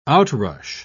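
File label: "outrush", British English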